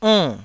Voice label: "onn4", Taiwanese

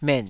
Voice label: "met3", taiwanese

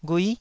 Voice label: "ghui9", Taiwanese syllable

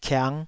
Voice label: "kiang5", taiwanese syllable

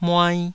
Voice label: "muai2", taiwanese syllables

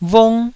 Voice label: "vung1", taiwanese Syllables

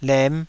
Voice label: "lem5", Taiwanese syllable

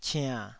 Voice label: "ciann3", taiwanese

